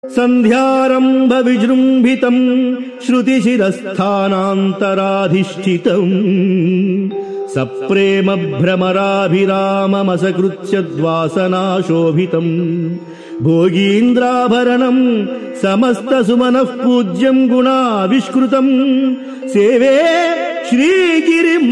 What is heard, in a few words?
Sanskrit; Sri Adi Sankaracharyas Sivananda Lahari; Adi Shankaracharya; श्रीशिवानन्दलहरी ५०